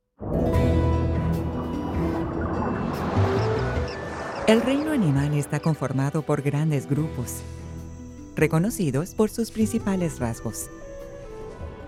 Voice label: Spanish Latin American documentaries